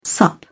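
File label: "sup", English, uk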